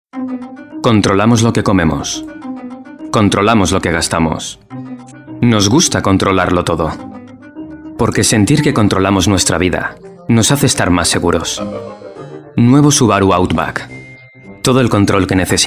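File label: ES M commercial, Spanish, male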